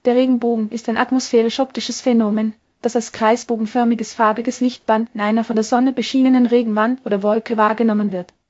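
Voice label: speaker, American English; piper-voices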